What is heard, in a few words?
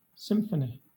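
"symphony", British English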